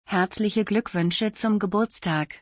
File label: Best wishes on your birthday in German; تولدت مبارک به آلمانی